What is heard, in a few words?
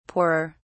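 "poorer", British English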